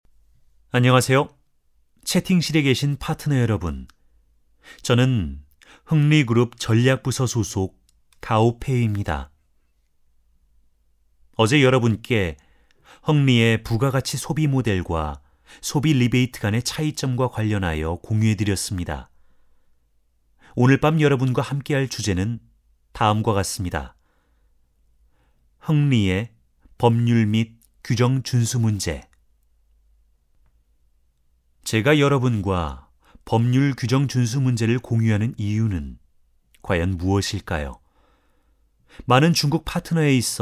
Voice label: 外籍韩语规则讲解自然讲述

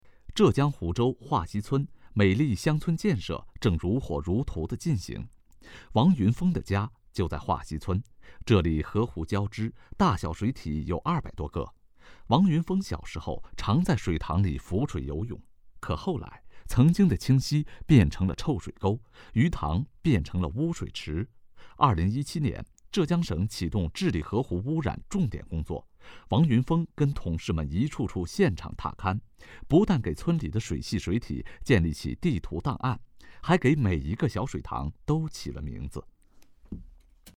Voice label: 新闻播读

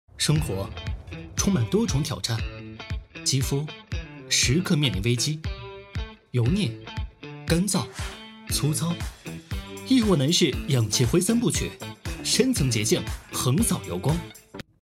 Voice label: 广告

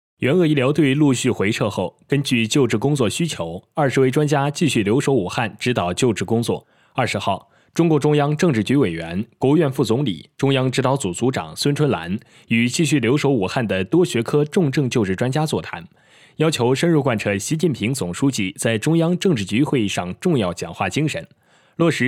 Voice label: 新闻播报

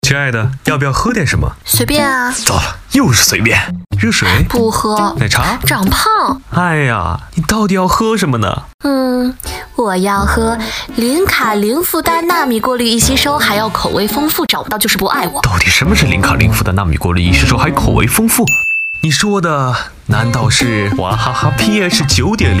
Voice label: 苏打水 广告; 哇哈哈

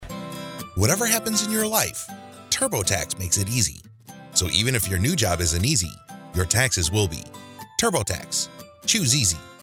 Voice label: 轻快税务软件广告

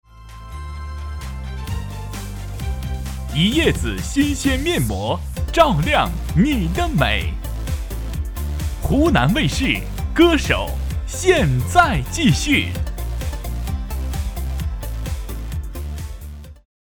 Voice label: 频道声模仿 湖南卫视频道声

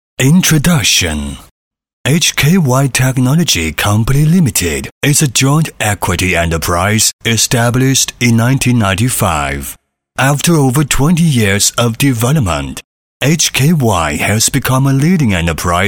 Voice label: 英文 音样 磁性 舒缓

